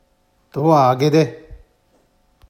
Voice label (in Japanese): 「あげで」, 旭弁 銚子弁; 開ける/空ける/明ける; 「ドアあげで（訳）ドア開けて